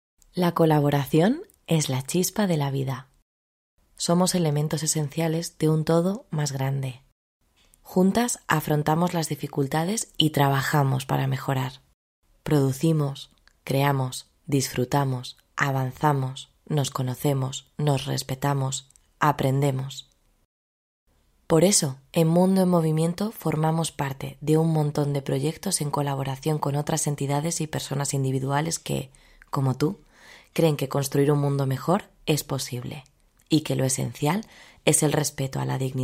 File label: female spanish castilian commercial; colabora personas